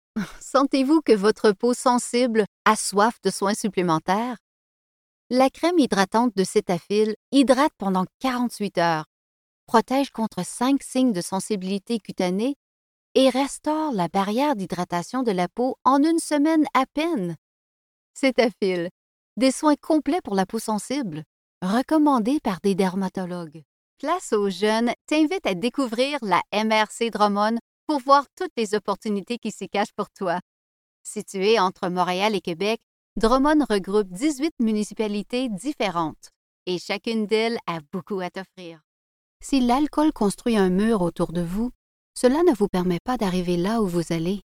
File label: French